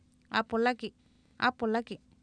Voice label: aapolaki vs AapolAki, Oapan minimal pairs female; Oapan minimal pairs 1998